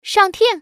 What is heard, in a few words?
ting shang, woman; mahjong gansu